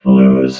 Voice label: "lose", vox robot